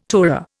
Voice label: "tourer", Indian

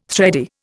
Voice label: "thready", Indian

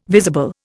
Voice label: "visible", Indian

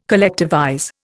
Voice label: "collectivize", Indian

